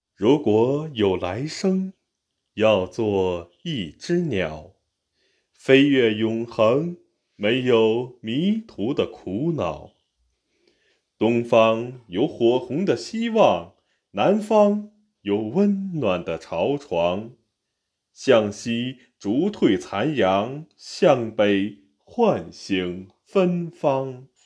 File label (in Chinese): 情感类